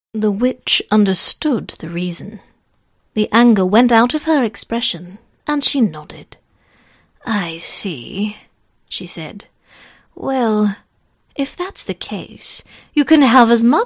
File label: Audiobook